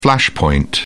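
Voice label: "flashpoint", British English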